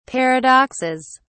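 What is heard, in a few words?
"paradoxes", British English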